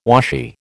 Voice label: "washy", American